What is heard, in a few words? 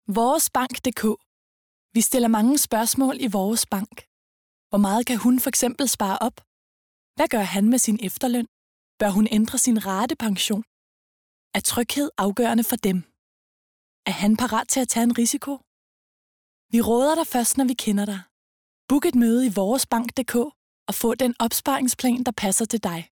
female danish commercial